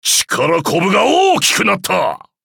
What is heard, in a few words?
upgrade, ja; Cookie Run